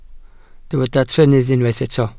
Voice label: "trennydd", Welsh

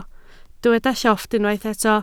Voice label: "llofft", Welsh

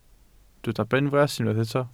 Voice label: "benfras", Welsh

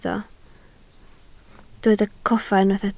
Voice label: "coffa", Welsh

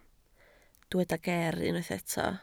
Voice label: "ger", Welsh

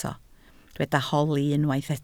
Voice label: "holi", Welsh

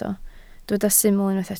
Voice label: "syml", Welsh

